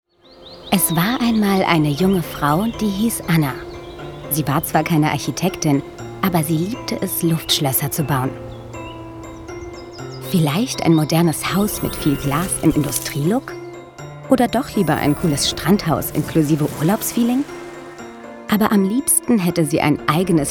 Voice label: Sanft

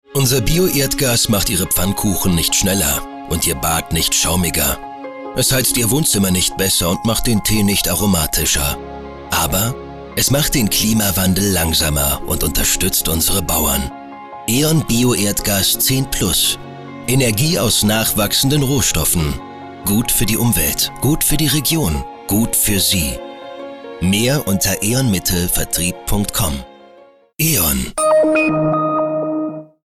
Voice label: Werbung Freundlich

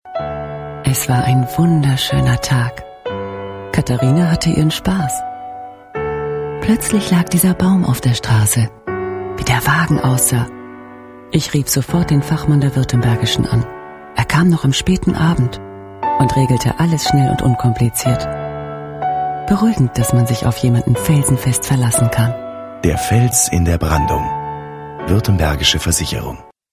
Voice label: Fremdtake Freundlich

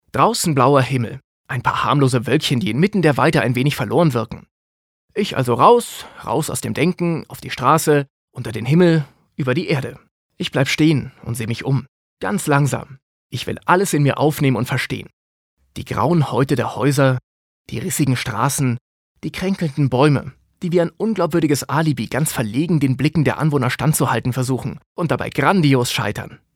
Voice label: Schauspiel Energisch

